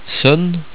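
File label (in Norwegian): "sønn" Norwegian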